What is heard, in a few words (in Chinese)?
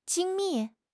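"精密", Chinese; HSK6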